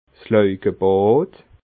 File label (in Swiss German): "laugebad", alsace